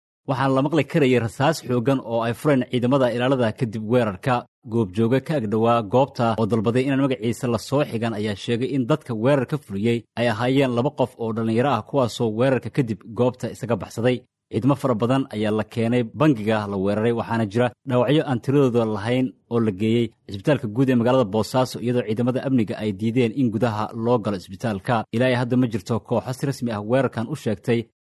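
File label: Somali, male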